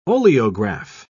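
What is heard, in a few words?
"oleograph", British English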